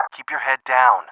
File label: come, English